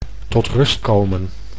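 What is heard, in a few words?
"tot rust komen", Dutch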